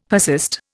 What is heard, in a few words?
"persist", Indian; Dictionary